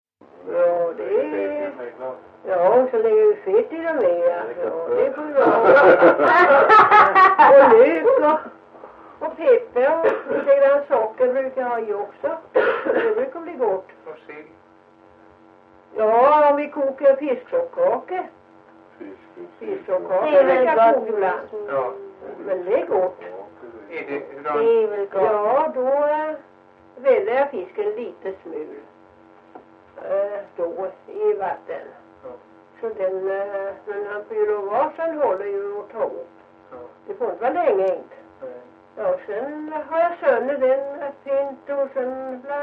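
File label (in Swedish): Ventlinge Öland, dialekter; Folkminnen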